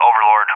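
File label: "Overlord", English, USA; CallSign; DCS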